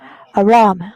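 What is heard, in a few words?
"alarm", JPN, female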